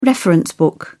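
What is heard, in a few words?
"reference book", English, uk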